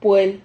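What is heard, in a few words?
"puel", Spanish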